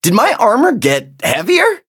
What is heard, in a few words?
levelup en